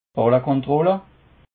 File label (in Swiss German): "ballkontroll", alsace